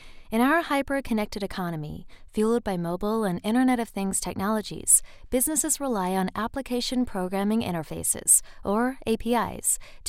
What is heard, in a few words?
US, American Female